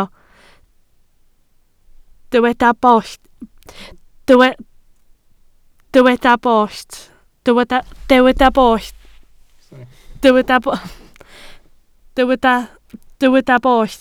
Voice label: "bollt", Welsh